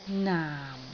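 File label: "naam4", Cantonese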